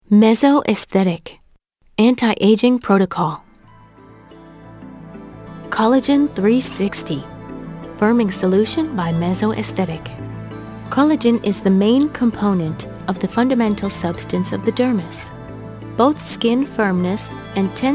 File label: Corporate